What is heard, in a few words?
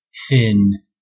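"thin", American English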